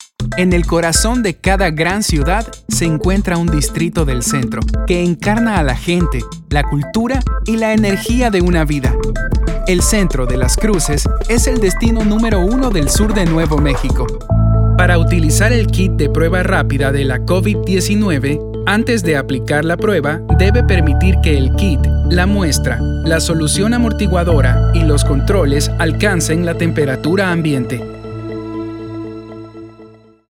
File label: spanish latin american narration